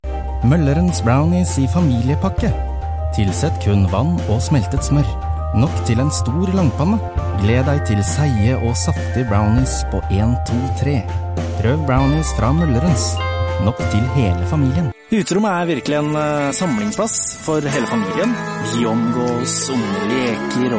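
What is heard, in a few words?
Norwegian M, male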